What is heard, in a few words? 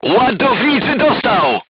voice message charger wounded, polish